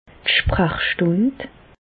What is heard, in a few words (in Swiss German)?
"sprachstund", Bas Rhin; medecine; consultation